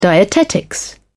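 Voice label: "dietetics", British English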